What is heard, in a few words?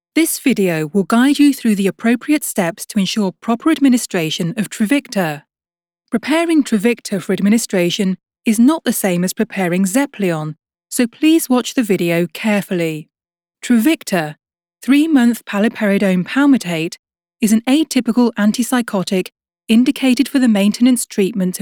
english british medical narration